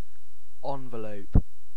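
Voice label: "envelope", British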